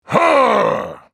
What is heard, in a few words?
spellcast effort, en US; Trundle